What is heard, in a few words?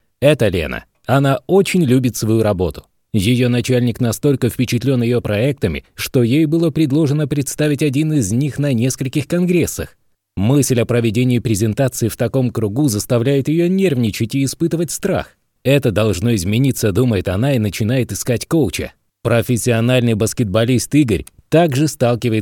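Russian Male Audio Book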